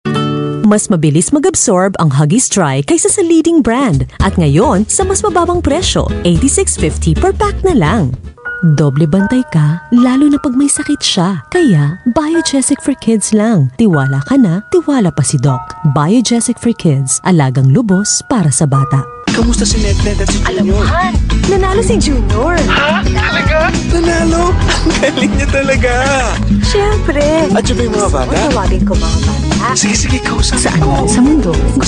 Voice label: Tagalog Commercial